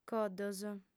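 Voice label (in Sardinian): "coddos", female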